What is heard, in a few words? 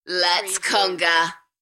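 UK female, DJ vocals; Lets conga; beanstalk audio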